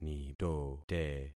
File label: "nitote", concat syllables; Affixing experiment